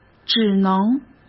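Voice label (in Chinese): "只能", Mandarin Chinese; hsk; chinese flashcards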